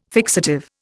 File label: "fixative", Indian